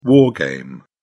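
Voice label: "war game", English, British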